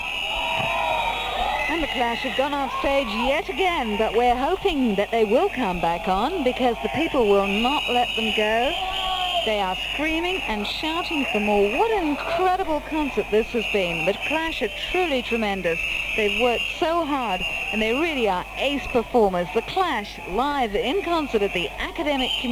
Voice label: Radio talk over; Academic Community Hall, Hong Kong